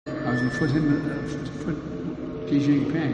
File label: gibberish; joe biden